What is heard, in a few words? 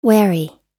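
"wary", American English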